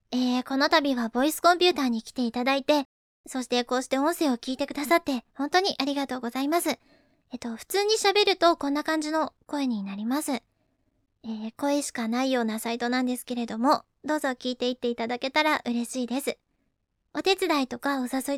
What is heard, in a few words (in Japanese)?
まずは初めに、素声っぽくごあいさつ